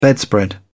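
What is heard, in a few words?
"bedspread", English, British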